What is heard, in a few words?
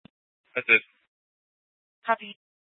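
Police 1 Dispatch, Scanner audio recording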